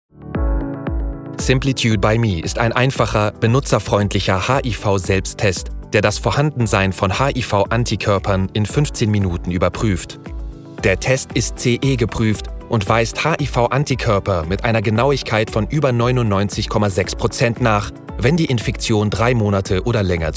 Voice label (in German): German Medical